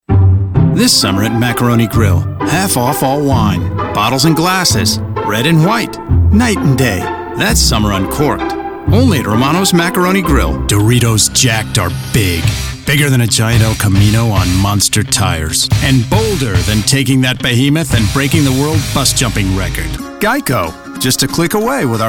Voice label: COMMERCIAL